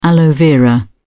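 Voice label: "aloe vera", British English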